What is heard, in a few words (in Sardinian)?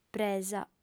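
"presa", female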